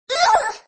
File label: autotune oof; roblox